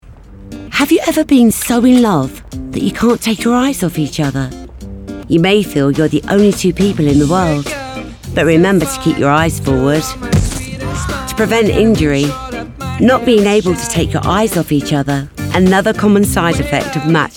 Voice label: English Commercial